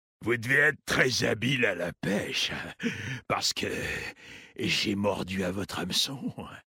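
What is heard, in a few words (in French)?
French Homme Flirt; Peche; Kultirassien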